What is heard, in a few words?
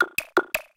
Client Angry; Atelier